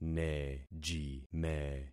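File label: "nejime", concatenated syllables; Affixing experiment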